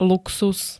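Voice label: "luxus", Slovak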